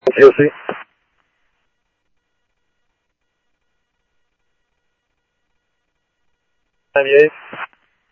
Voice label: scanner audio; Thompson Peak; DPS CI-Ctrl